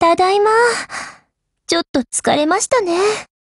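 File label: OPERATION OVER, Japanese; GF Alarm; AR70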